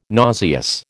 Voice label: "nauseous", American